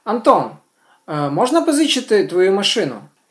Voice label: dialogue, Ukrainian